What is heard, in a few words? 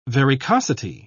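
"varicosity", British English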